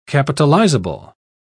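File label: "capitalizable", English, British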